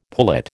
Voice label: "pullet", American